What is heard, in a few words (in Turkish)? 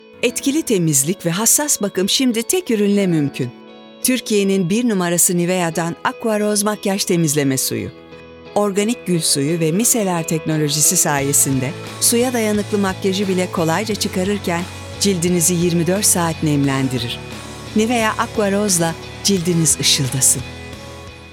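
sicak samimi, seslendirme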